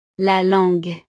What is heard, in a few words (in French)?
"la langue", frances; partes del cuerpo